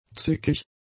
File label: "zickig", German; adjectives